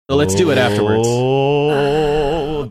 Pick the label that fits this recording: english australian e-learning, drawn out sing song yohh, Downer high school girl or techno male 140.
drawn out sing song yohh